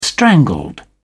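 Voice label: "strangled", British English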